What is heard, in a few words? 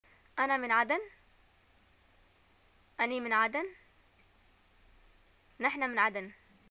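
I am from, Yemeni arabic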